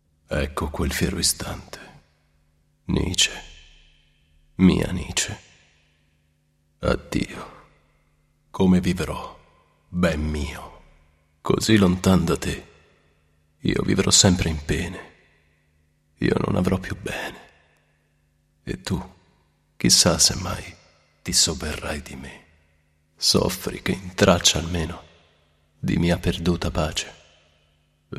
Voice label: male italian poetry; metastasio; devine voices